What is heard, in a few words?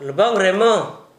"lboŋ remo", Gta, Dialect: Hill; wet person